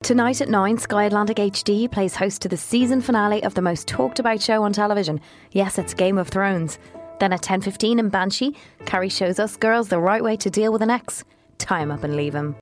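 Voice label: British English, Announcement; GoLocalise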